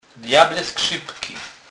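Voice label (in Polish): "djable skrzypky", Kurpie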